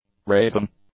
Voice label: "raving", English; adjectives

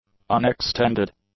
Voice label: "unextended", english; adjectives

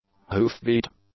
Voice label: "hoofbeat", English; nouns

